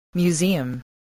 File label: "museum", voice